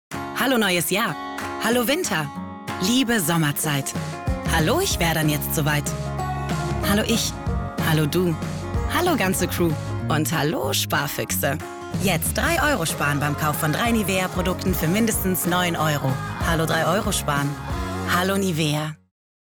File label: spot; nivea